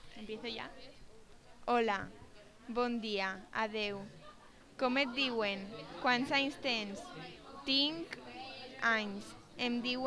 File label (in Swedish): valenciano; COMENIUS